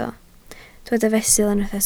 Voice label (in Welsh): "fesul", Welsh